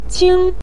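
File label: "qing", Mandarin Chinese neutral tone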